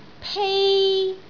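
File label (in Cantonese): "pei1", Cantonese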